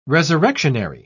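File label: "resurrectionary", English, British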